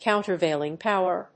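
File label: "countervailing power", English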